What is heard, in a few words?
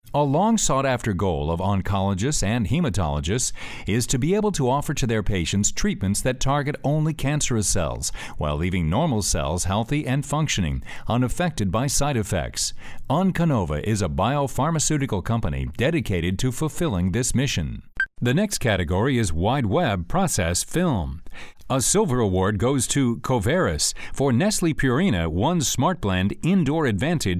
Narration Male; commercial voiceover